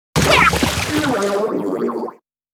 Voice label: SE Voice Boy Splatted; Splatoon